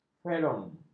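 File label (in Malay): "ferum", Malay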